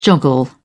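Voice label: "joggle", British English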